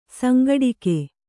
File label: "ಸಂಘಟಕಿ", Kannada